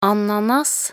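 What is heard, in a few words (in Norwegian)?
"ananas", Norwegian; talkbook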